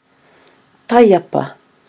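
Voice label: "taiapa", Māori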